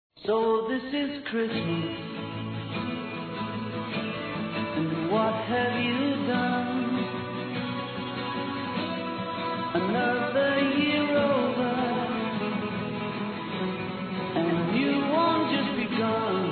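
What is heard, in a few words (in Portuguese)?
Natal neutro feminino, Telemensagens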